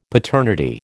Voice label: "paternity", American